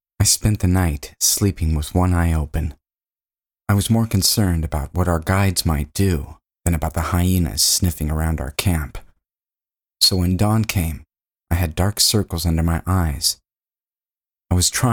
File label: Narration